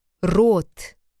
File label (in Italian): R arrotata in russo